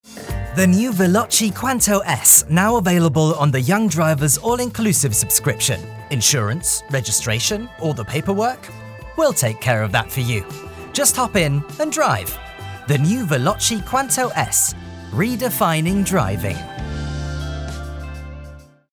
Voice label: Werbung Auto Abo, EN

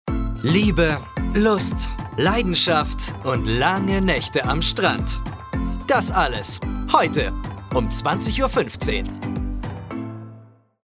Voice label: DE Trailer Show

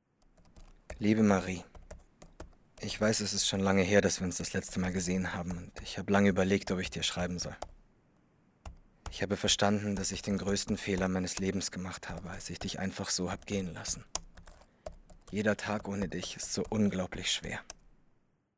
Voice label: Sprachproben traurig Mail

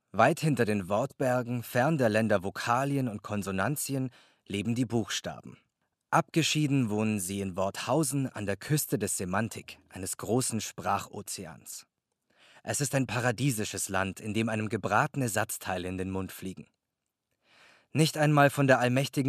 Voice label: Sprachprobe, DE; Wortberge

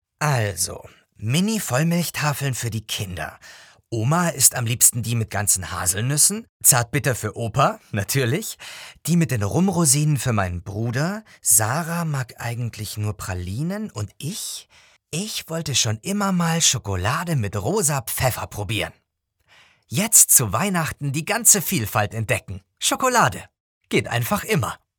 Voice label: Werbung Weihnachts Schokolade, DE

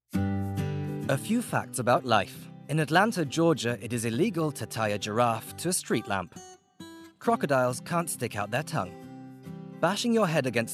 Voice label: Sprachprobe Facts about life EN

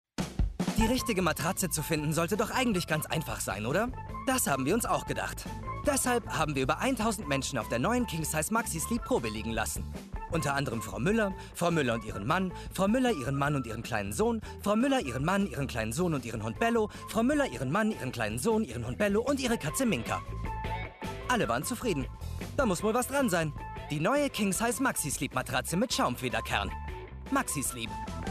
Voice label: Sprachprobe Matratze DE

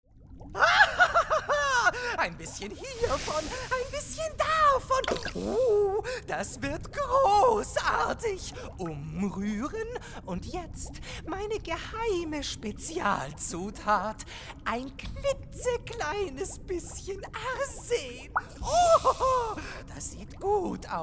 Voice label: Sprachproben irrer Koch

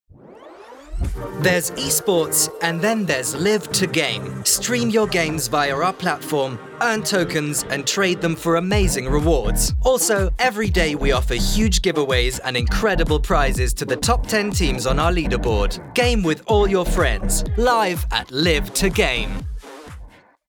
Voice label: EN Werbung Gamer

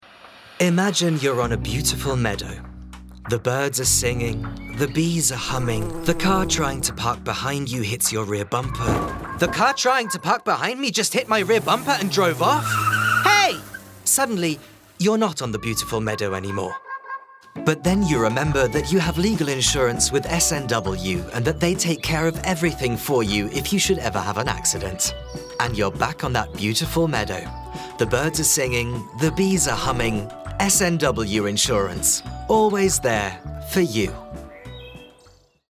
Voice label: EN Werbung Versicherung

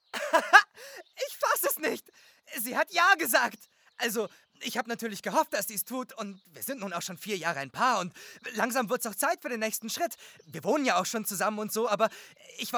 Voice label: Sprachproben Gluecklich

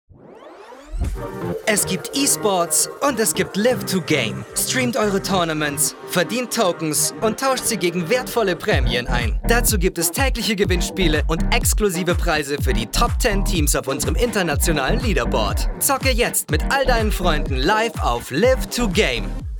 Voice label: DE Werbung Gamer

